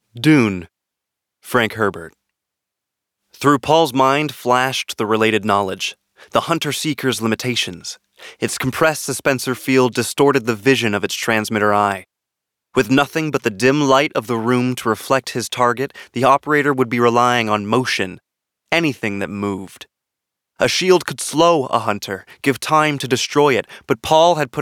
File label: Audiobook; Dune